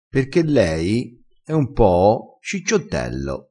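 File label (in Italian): "cicciottello", dialettale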